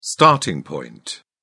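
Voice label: "starting point", British English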